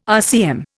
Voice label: "RCM", Indian